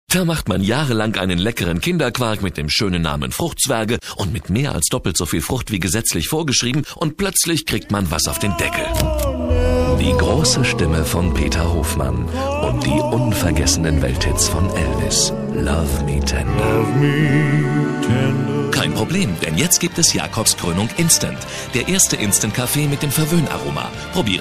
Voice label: werbespot medley; sprecher; profi

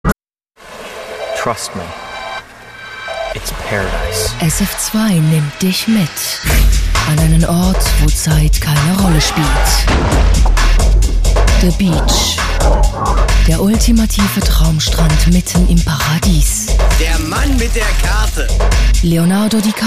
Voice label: Trailer Action DE; sprecher; profi

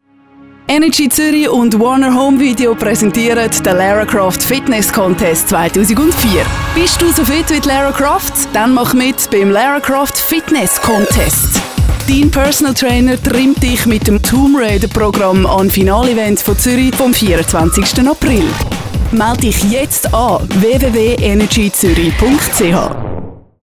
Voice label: Spot, CH-DE; LaraCroft; sprecher; profi

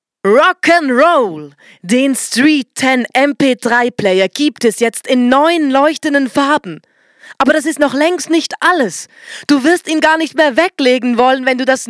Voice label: MP3 player DE

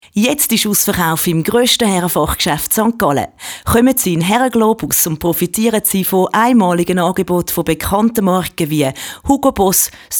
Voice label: Spot CH-DE; Globus

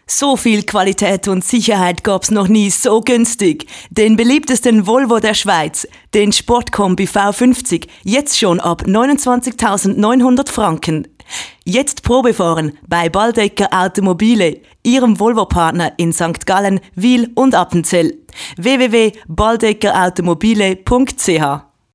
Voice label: Spot DE

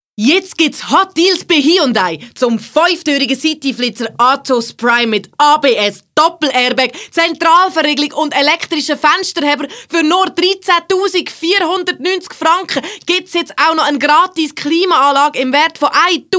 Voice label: CH DE; Hyundai

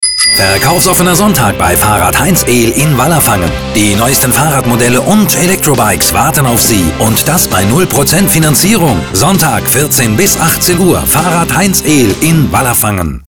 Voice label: DE

